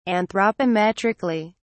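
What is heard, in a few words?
"anthropometrically", English, British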